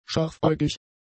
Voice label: "scharfäugig", german; adjectives

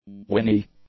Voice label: "whinny", English; nouns

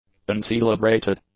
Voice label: "uncelebrated", English; adjectives

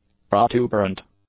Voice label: "protuberant", english; adjectives

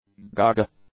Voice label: "gaga", English; adjectives

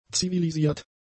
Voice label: "zivilisiert", German; adjectives

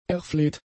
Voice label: "erfleht", German; adjectives

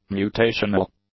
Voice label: "mutational", English; adjectives

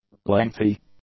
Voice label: "lengthy", english; adjectives

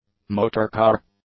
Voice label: "motorcar", English; nouns

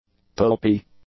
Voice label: "pulpy", english; adjectives

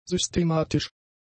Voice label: "systematisch", German; adjectives

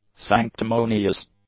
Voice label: "sanctimonious", English; adjectives

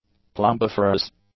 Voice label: "plumbiferous", english; adjectives

